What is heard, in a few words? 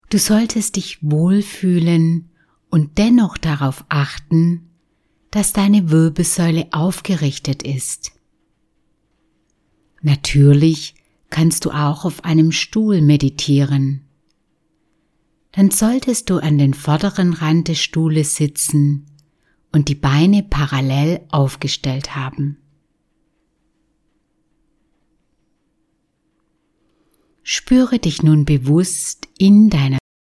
Meditation